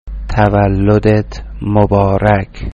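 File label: happy birthday persian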